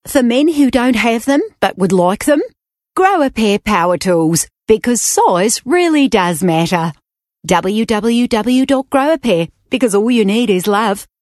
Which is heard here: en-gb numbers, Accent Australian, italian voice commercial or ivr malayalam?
Accent Australian